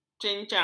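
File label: "cencang", Malay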